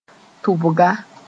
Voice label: "tʋbga", moore; slender mongoose